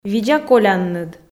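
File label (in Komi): "видза колянныд", Komi, female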